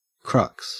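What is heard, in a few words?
"crux", Australian English; pronunciations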